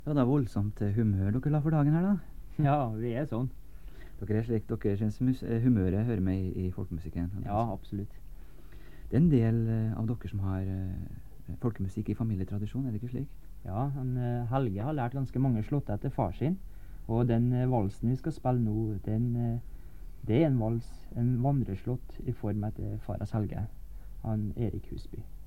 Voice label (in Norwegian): Tale